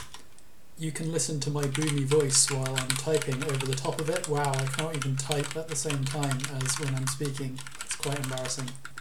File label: speaking over keyboard